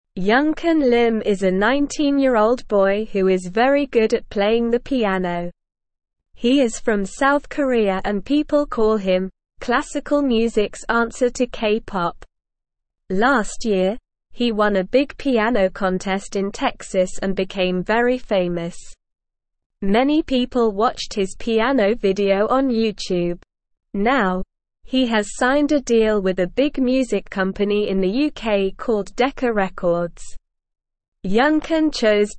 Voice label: English Beginner SLOW Reading; Young Korean Pianist Signs with Famous Music Company